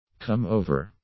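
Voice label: "come over", English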